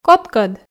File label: "кодкӧд", Komi